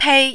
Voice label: "pei1", mandarin